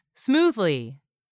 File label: "smoothly", English; 3000 words